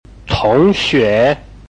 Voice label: "tong2xue2", Chinese